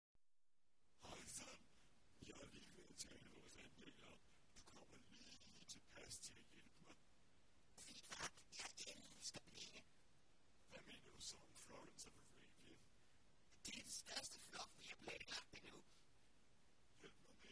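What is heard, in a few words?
Danish, Characters; GoLocalise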